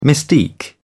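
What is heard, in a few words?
"mystique", British English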